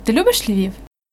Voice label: dialogue, Ukrainian